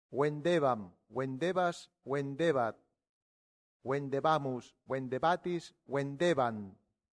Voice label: "vendebam", Latin; santillana